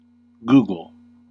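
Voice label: "googol", US English; pronunciations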